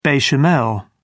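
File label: "bechamel", British English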